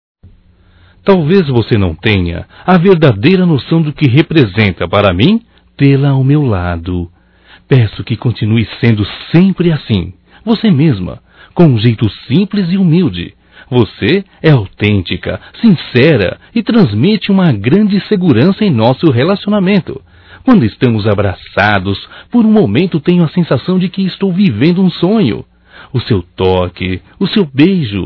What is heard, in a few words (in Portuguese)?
Romântico Masculino, Telemensagens; Românticas